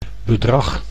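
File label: "bedrag", Dutch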